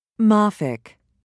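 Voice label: "mafic", British English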